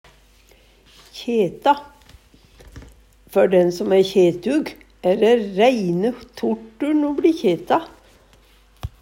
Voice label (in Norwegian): kjeta, Numedalsmål; Verb